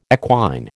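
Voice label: "equine", American English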